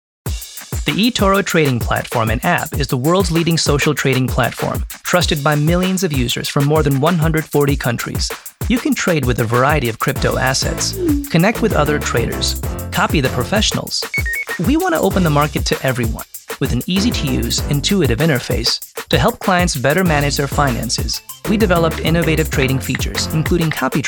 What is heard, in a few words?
VO Explainer